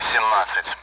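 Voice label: Speech Sound Russian Common AWACS Numbers; DCS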